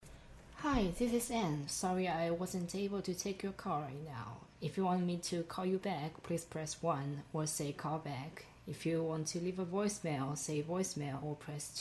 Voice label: voicemail welcome